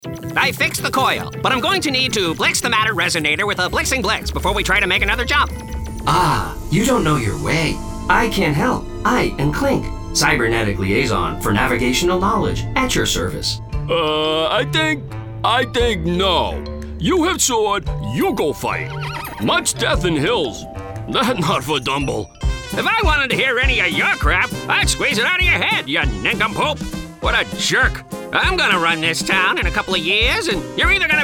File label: Character Animation